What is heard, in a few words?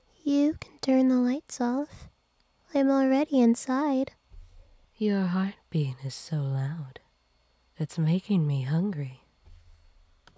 Eerie Monotone